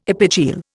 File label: "epigeal", Indian